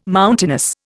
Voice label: "mountainous", Indian